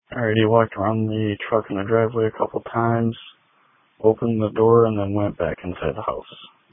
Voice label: Police Tactical Encrypted